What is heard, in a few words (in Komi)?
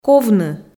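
"ковны", Komi, female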